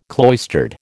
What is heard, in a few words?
"cloistered", American